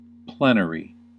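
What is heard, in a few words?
"plenary", American English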